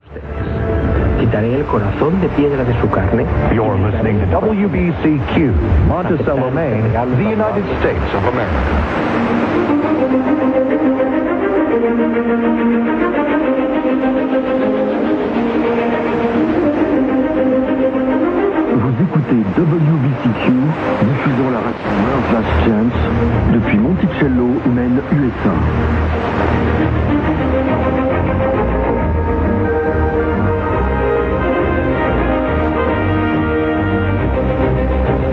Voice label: 9330 kHz, francese; WBCQ World's Last Chance; Degen DE 1103; filo 5m indoor; St. Ursen

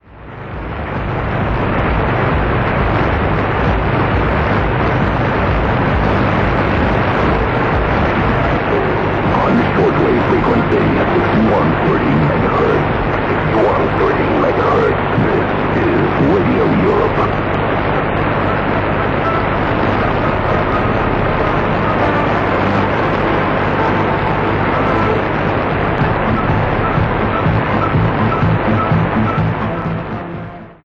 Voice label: 6130 kHz inglese; Radio Europe; Degen DE 1103; telescopica indoor; St. Ursen

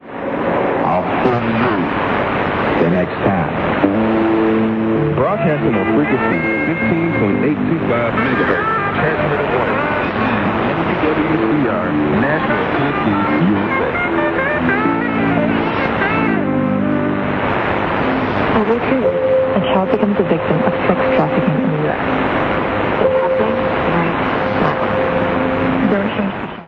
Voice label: WWCR, 15825 kHz, inglese; Nashville; Degen DE 1103; filo 5m indoor; St. Ursen

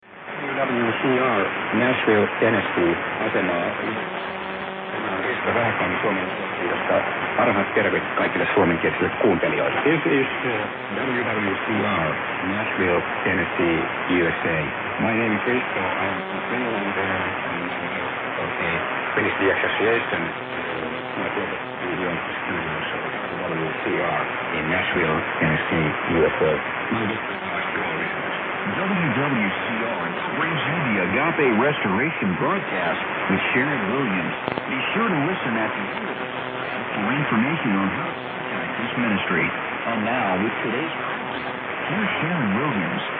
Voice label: 15825 inglese; WWCR

